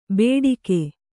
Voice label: "ಬೇಡಿಕೆ", Kannada; bēḍike; noun; solicitation or petition.

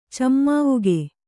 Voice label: "ಚೆಮ್ಮಾವುಗೆ", Kannada

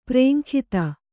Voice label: "ಪರೇಂಗಿತ", kannada

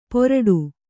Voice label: "ಪೊರಡು", Kannada

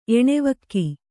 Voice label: "ಎಣೆವಕ್ಕಿ", Kannada

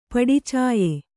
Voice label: "ಪಡಿಚಾಯೆ", kannada

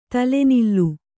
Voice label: "ತಲೆನಿಲ್ಲು", kannada

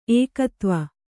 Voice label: "ಏಕೋದೇವ", Kannada

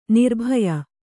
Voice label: "ನಿರ್ಭಯ", kannada

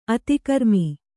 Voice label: "ಅತಿಕರ್ಮ", Kannada

